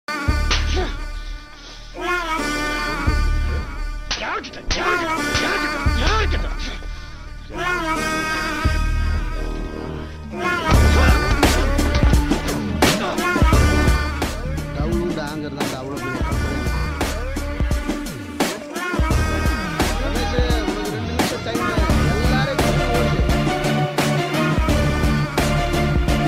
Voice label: tamil mass scene; vada chennai; rajan